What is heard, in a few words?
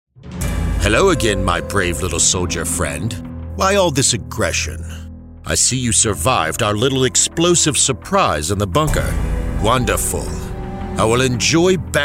Video Game Character Mid-Atlantic British